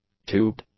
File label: "tubed", English; adjectives